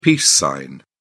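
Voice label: "peace sign", British English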